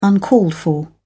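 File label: "uncalled-for", British English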